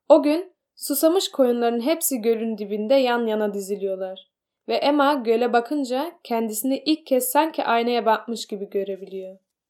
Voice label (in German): türkisch